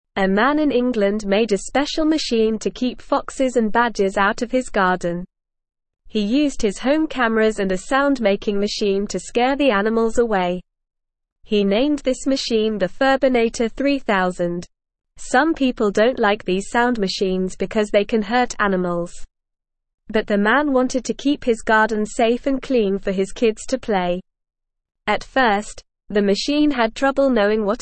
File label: English Newsroom Beginner NORMAL Reading; Man Invents Furbinator 3000 to Keep Animals Away